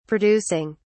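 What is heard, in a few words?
"producing", English, British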